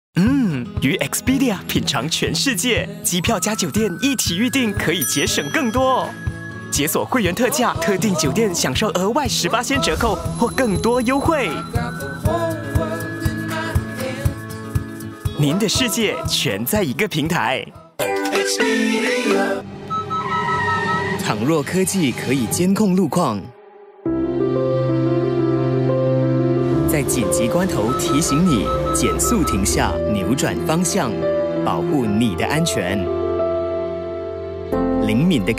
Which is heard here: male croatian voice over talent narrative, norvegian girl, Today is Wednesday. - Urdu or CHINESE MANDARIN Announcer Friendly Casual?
CHINESE MANDARIN Announcer Friendly Casual